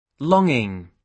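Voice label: "longing", British English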